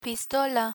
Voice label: "pistola", Spanish; police vocabulary